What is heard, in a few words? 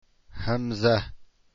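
hamza, arabic letters; deen path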